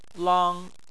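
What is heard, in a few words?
"lang", Chinese, first tone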